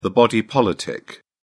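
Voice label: "body politic", British English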